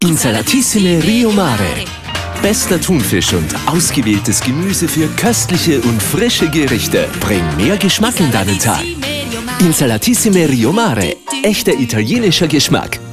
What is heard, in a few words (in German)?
WERBUNG; Rio Mare